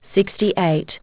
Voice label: aus, aussie prompts